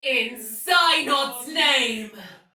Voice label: Attack Heavy, Soldier Female, Battle; Sinecure